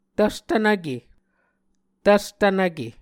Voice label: "tvstvnvke", muscogee

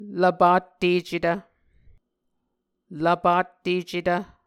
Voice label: "lvpattecetv", Muscogee

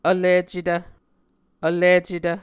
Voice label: "vlicetv", Muscogee

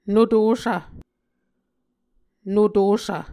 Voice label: "notossv", Muscogee

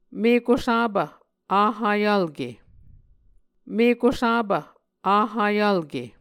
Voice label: "mekusapv vhayvlke", Muscogee

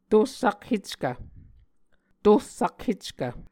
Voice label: "tor sakheckv", Muscogee

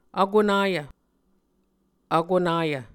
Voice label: "vkonayv", Muscogee

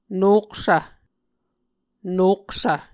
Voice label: "noksv", Muscogee

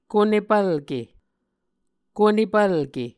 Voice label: "konepvlke", Muscogee

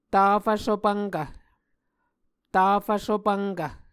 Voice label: "tafv-sopvnkv", Muscogee

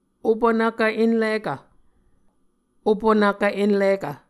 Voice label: "opunvkv enlikv", Muscogee

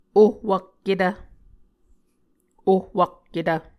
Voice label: "ohwakketv", Muscogee; Mvskoke Words with Repeated Consonants; Martin, Jack B., and Margaret McKane Mauldin. 2023. A Dictionary of Creek/Muskogee

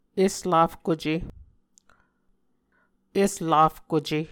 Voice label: "eslafkuce", Muscogee

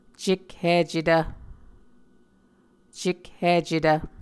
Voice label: "cekhicetv", muscogee; Mvskoke Words with Voicing Consonants; A Dictionary of Creek/Muskogee; SIL International; Onvkv Ohhvyayices

